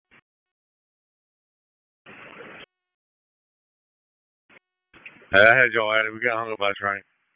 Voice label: FIRE OPS, p25

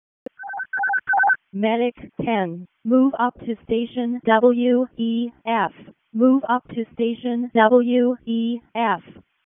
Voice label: EMS ALERT, p25

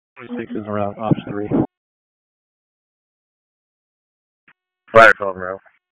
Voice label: FIRE OPS, p25

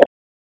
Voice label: Raleigh Police Northeast District Tac, p25

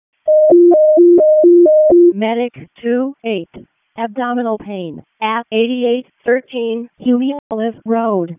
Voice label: EMS ALERT

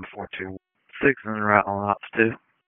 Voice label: FIRE OPS, p25; wake

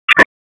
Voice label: Police District Tac, p25; Raleigh; Southeast; wake

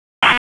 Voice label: Police North District Tac, p25; Raleigh; Tac 1

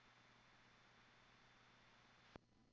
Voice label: P25, recordings

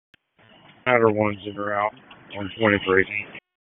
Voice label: PS TAC, p25; wake

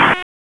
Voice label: Police Southwest District Tac 1, p25; Raleigh; wake

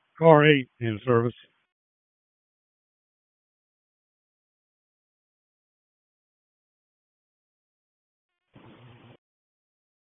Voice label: PS TAC, p25; wake

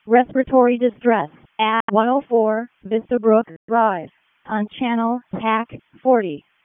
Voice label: EMS ALERT

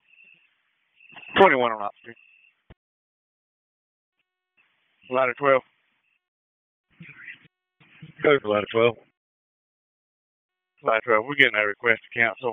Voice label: FIRE OPS, p25

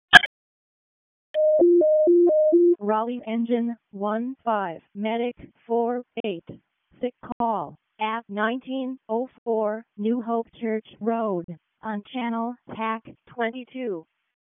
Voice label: EMS ALERT

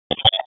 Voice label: Police Southwest District Tac 1, p25; Raleigh; wake

